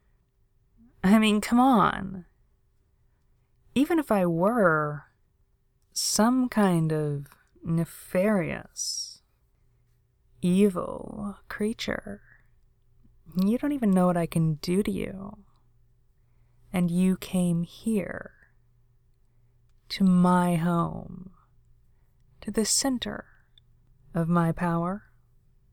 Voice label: super villainess; alraune